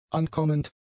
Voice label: "ankommend", German; adjectives